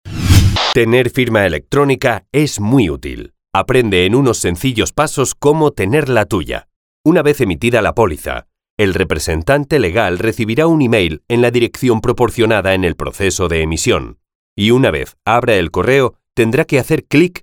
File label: spanish explainer videos; professional voice actor from Spain